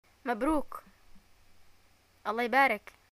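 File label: greeting celebration, Yemeni Arabic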